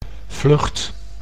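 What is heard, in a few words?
"vlucht", Dutch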